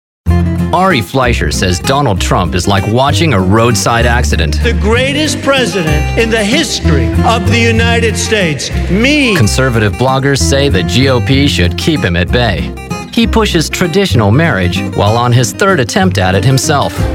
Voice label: english american others

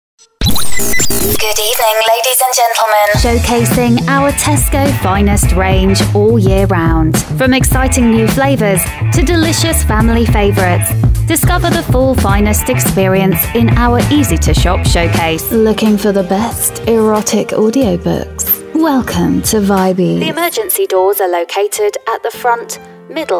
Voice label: english british commercial; Inglés (Reino Unido); Sexy, Seductive, Sophisticated, Friendly British Voice Over; Originally from Cambridge

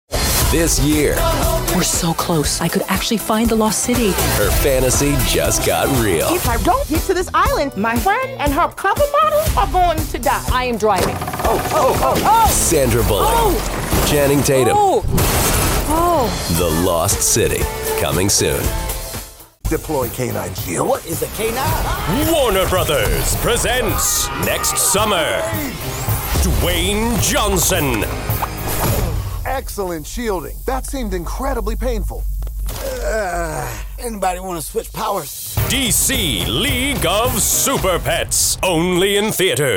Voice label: english american movie trailers

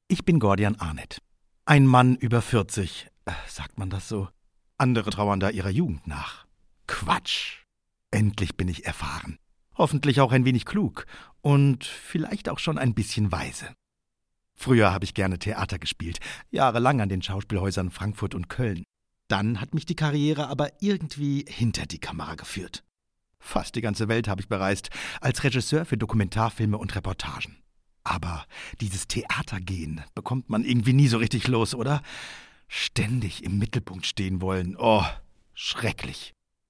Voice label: german others

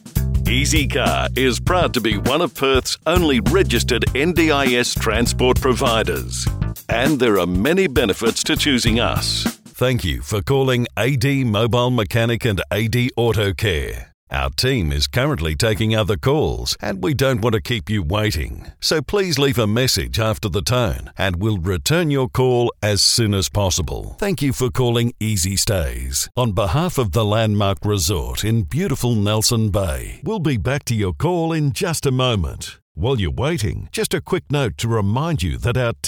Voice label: english australian ivr

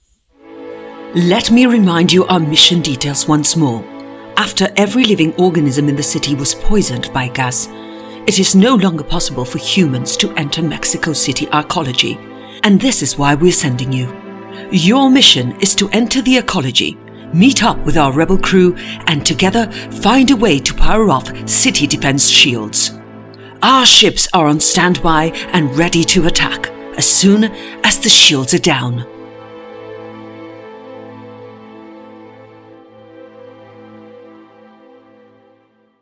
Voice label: english british video games

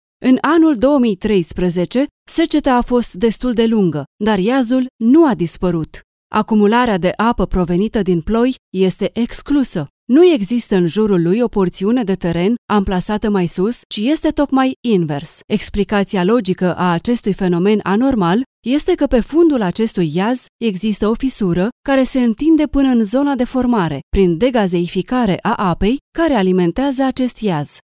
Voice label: romanian narration

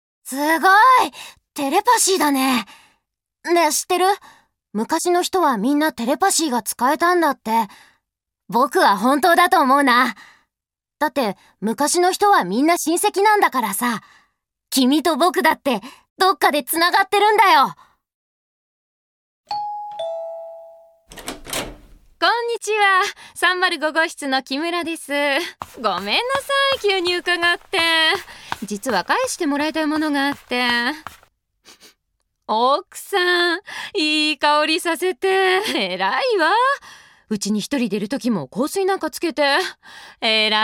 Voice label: japanese animation, Japanese voice actor

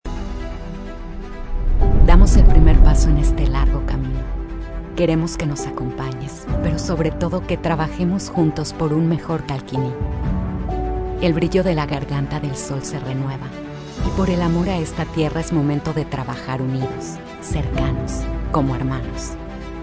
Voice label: spanish mexican narration

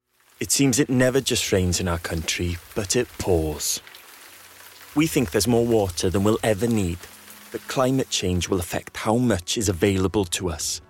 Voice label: english international corporate videos

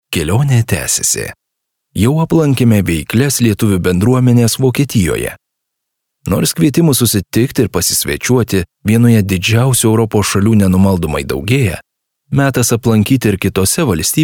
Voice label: lithuanian documentaries

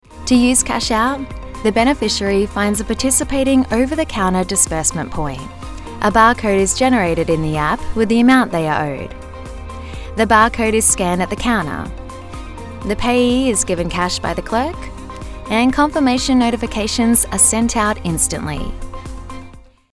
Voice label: english australian corporate videos; dubladora experiente

